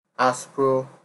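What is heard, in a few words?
"aspro", Nigerian Pidgin, male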